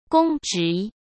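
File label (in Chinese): "公职", Mandarin Chinese; chinese-flashcards; hsk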